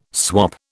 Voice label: "swap", British